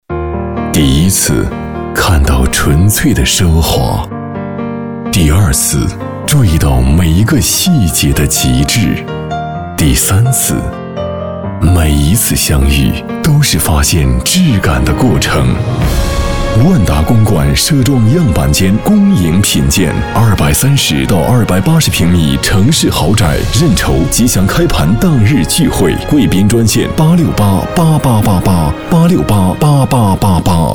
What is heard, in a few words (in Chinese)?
男国 广告 地产 优雅; 万达公馆